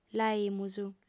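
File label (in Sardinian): "l'aimus", Sardinian, female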